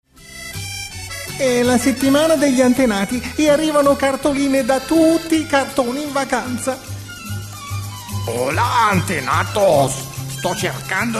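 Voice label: Italian, male, character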